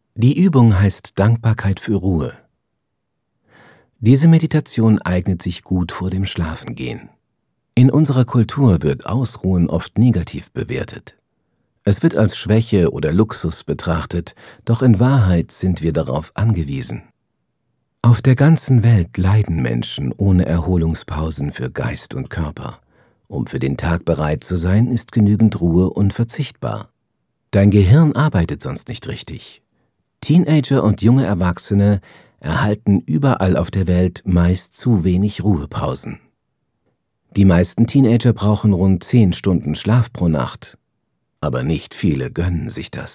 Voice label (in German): Kommentar; Whil Meditations App